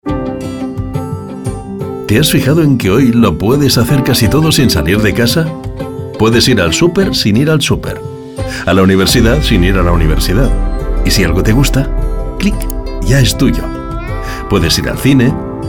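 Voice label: European Spanish, commercial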